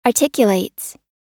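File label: "articulates" US English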